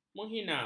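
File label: "menghina", Malay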